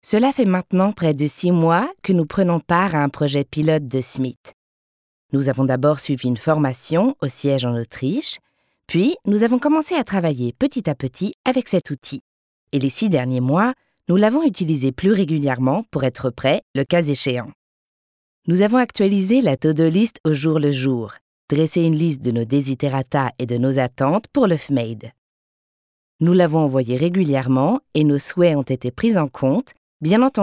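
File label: French e-learning; sprecher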